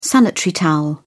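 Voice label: "sanitary towel", British English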